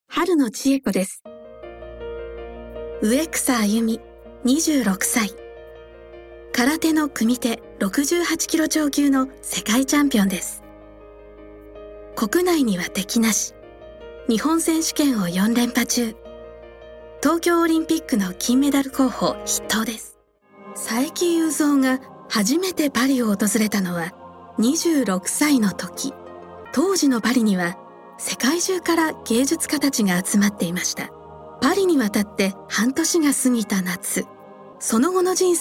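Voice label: female documentary en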